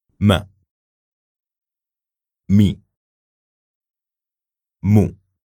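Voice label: "ma mi mu", Arabic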